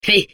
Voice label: Space core babble, es